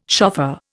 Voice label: "shofar", Indian

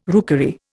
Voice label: "rookery", Indian

